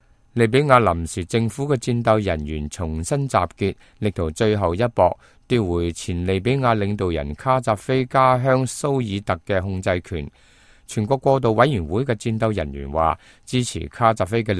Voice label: cantonese, news